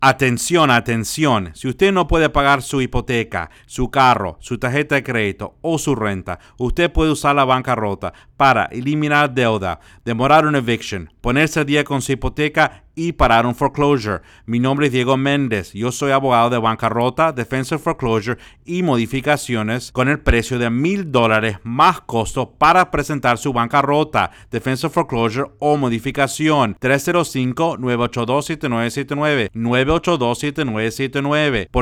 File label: Radio spot